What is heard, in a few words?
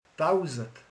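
"ezer", Hungarian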